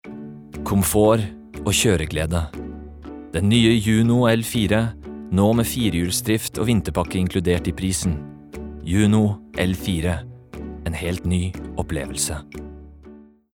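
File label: Norwegian, soft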